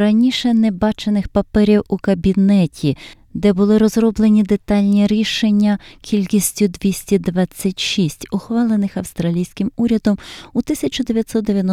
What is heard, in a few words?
ukrainian; Cabinet papers reveal Government decisions from late 1990s; SBS Ukrainian